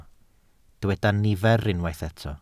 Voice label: "nifer", Welsh